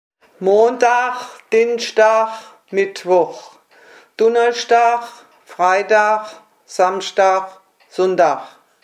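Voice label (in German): wochentage, O-Ton Hunsrück; Urbar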